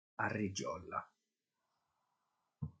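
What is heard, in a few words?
"Arrigiola", Sardinian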